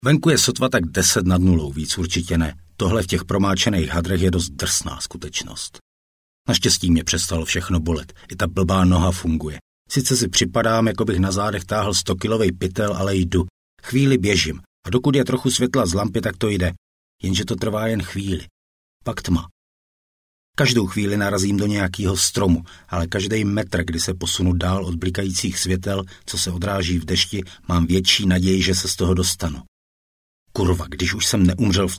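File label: Czech male narration novel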